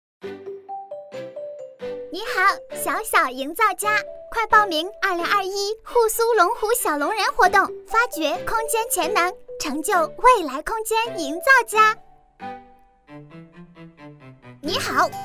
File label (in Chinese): 女 女童声 广告; 营造家